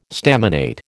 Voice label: "staminate", American